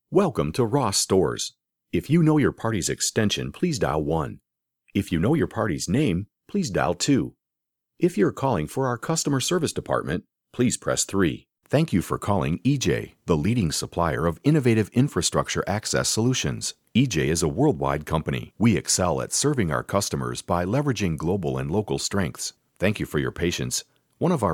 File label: Telephony IVR